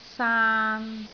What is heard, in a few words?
"saan3", Canton